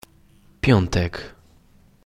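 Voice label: "Friday", Polish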